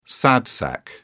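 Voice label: "sad sack", English, British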